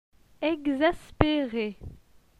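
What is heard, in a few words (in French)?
"Exaspere", French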